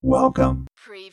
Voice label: Robot voice, "welcome"; lynne publishing